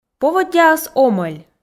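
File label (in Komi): поводдяыс омӧль, Komi, female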